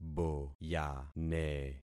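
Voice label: "boyane", concatenated syllables; Affixing experiment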